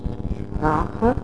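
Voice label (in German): "sprache", German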